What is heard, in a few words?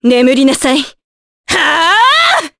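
Vox Skill Japanese; Maria; kingsraid; jp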